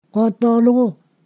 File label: "third", Ponosakan